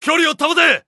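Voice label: Japanese Neutral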